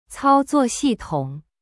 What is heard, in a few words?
"cao1zuo4xi4tong3", Chinese; cyberchinese